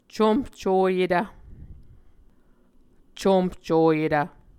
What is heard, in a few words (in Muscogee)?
"comhcoyetv", Muscogee